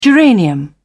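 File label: "geranium", British English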